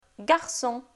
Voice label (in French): garçon, francais Phonetique Consonnes